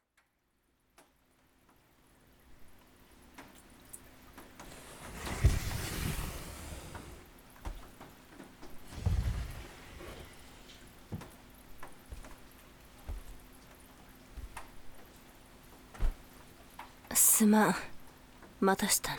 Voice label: 雨降りバージョン, ASMR

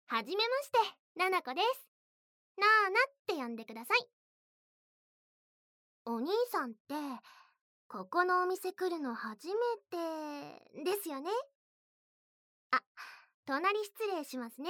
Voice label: 自己紹介, ASMR; 効果音有り

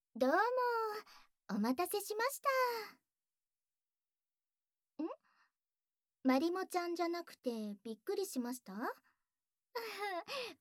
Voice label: 耳かき＆ヘッドスパリフレ♪, 効果音なし

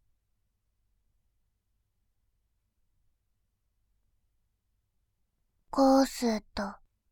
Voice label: コスト, バイノーラル左, ASMR

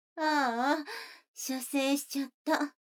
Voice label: 女の子台詞

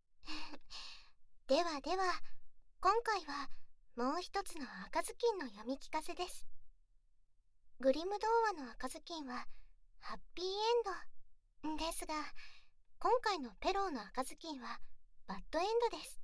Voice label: 読み聞かせ耳かき; もう一つの「赤ずきん」; 【耳癒しASMR】オトギノリフレ～赤ずきん～

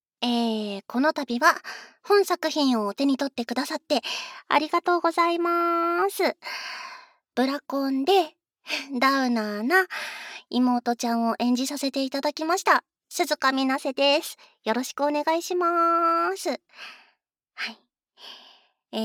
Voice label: フリートーク

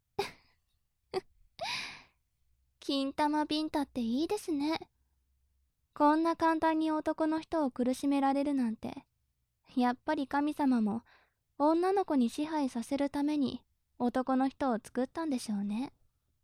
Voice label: お仕置き中音声, ASMR

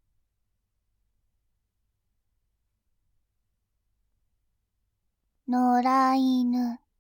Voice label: 「野良犬」, ASMR

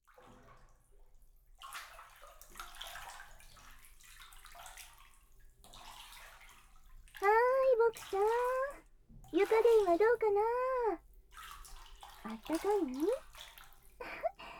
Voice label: くちゅ音無し ちゅっちゅされながら……たくさんびゅっびゅして?, ASMR

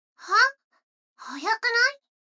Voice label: 女の子 台詞, ASMR

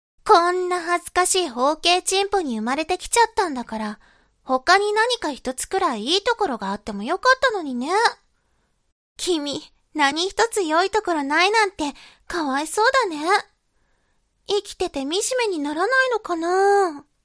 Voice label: 懲罰中音声, ASMR

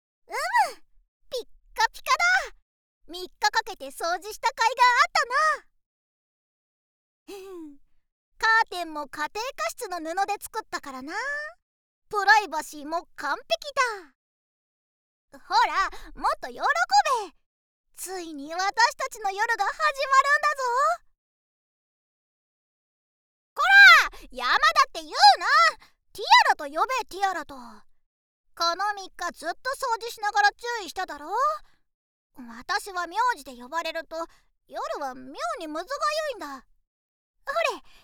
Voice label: 声のみ ノイズ除去なし; ナマイキでかわいくてご主人様なヴァンパイア; めがみそふと; 効果音なしver

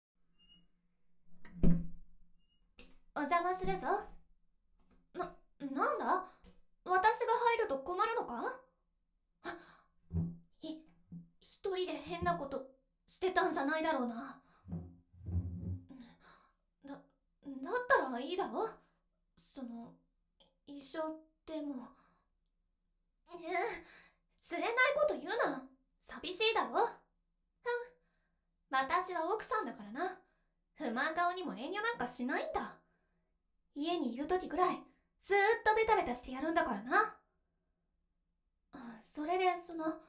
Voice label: 特製ローションでマッサージ, ASMR

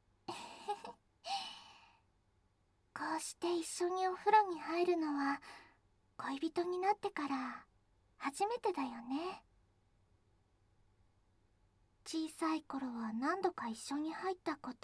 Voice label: お姉ちゃんお風呂でイチャイチャ【リバーブなし】, ASMR

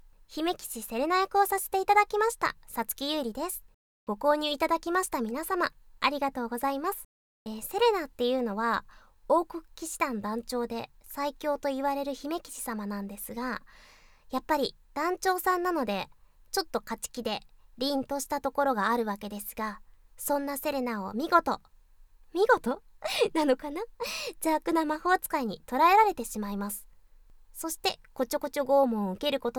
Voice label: フリートーク

